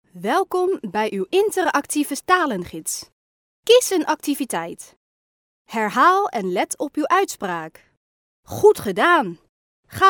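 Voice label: Dutch female corporate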